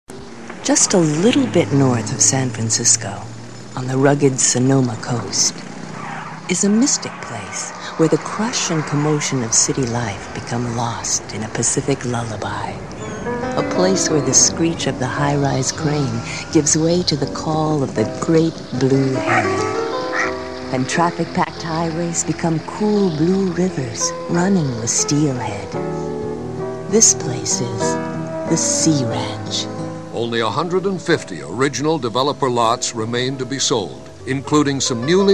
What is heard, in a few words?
radio ad